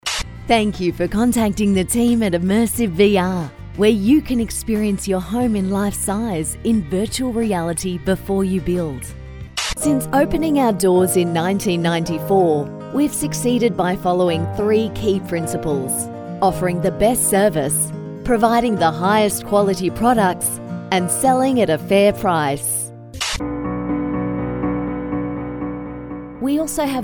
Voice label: ON HOLD